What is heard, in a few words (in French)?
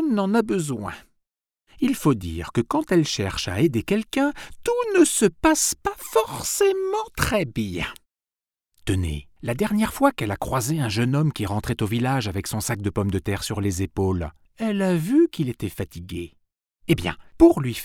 voix off conte fee carapace